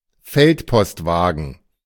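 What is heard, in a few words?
"Feldpostwagen" German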